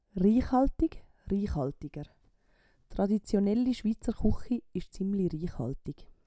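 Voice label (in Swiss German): "riichhaltig", Swiss German; Wortschatz Kapitel 8; Kapitel 8 Zusatzwortschatz 2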